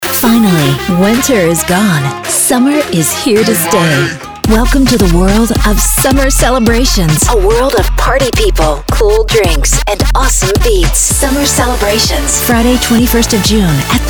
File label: commercial us; summer celebrations